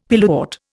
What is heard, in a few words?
"pilewort", Indian; Dictionary